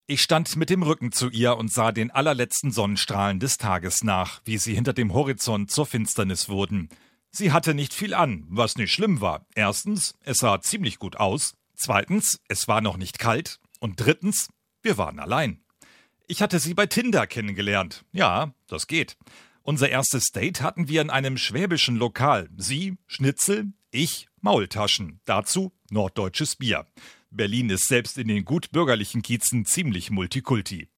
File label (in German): Hörbuch